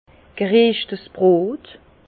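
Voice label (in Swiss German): "brot", Alsace Bas Rhin; vin; pain grillé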